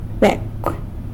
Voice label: "becw" dog; san lucas quiavini

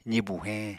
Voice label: "zibu heñ", Apatani

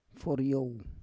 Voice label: "foryow", Roon

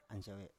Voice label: "ansiawe", Biak

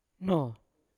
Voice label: "no" still, Yawa

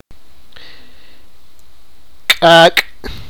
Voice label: sipakapense; mother s younger sister

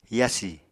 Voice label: "yasi", Apatani

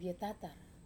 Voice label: "vetatar", wamesa

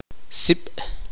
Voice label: "humo", Tektiteko

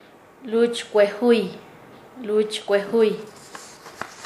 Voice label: Nasa Yuwe; desde la ninez; paez

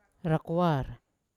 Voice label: "rakoar" to urinate, Yawa

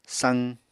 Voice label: "saq", Apatani

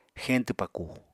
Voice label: "xeñtw pakù", Apatani

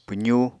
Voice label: "pwzu", Apatani

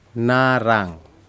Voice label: fish orangesocket Surgeonfish, Aneityum

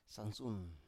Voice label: "sangsun", Biak

